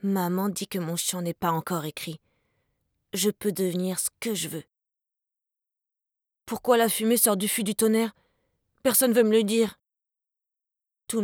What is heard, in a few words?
kid dialogue